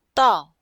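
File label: "dao", Chinese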